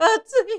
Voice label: horror "atui"